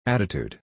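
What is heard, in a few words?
"attitude", English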